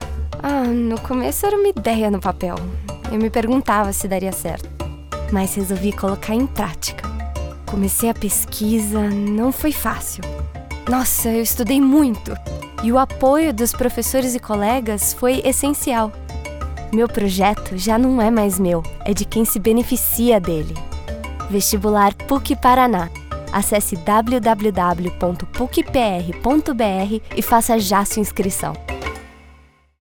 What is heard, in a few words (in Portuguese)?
Institucional voz suave interpretacao natural